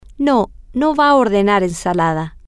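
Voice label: Spanish, female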